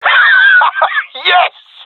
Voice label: cheer; Counter Strike Model Pack; CS:GO

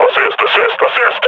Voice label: near panic; Half Life Model Pack

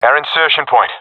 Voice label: our spawn, professional epic; player vo; Counter Strike Model Pack; CS:GO

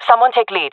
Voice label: request leader, jungle fem; player vo jungle fem; Counter Strike Model Pack; CS:GO

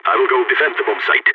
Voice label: going to defend bombsite, balkan

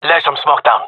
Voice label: request smoke, gendermerie male

